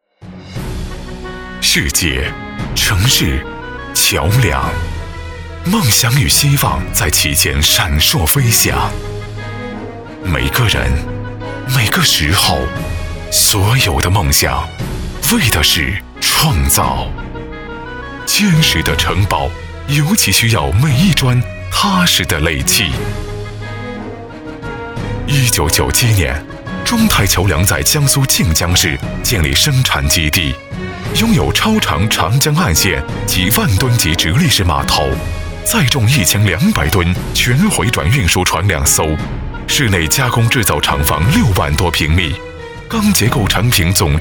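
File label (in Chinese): 男国大音-企业宣传片; 中泰桥梁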